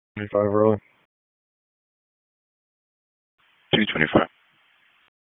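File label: Raleigh Police Northeast District Dispatch, p25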